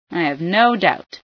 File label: no doubt, female